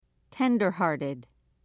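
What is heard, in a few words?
"tenderhearted", British English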